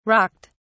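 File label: "rocked", British English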